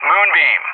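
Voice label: "Moonbeam", English; JTAC; Callsign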